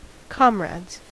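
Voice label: "comrades", American English; pronunciations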